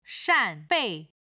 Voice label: "shan4bei4", Chinese